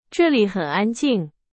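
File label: Chinese, example sentence; hsk2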